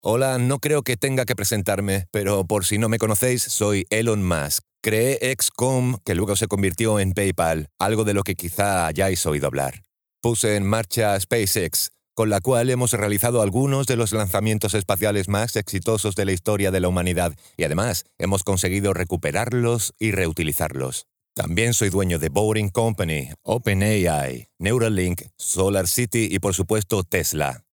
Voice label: ESP Male